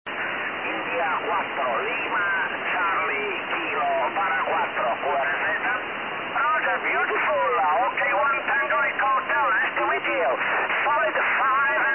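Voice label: SSB qso, 144 MHz, amateur radio; JN54PD 709; NAC qso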